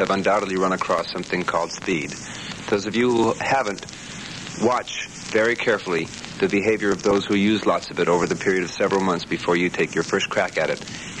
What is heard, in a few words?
Public Service Announcements; Drug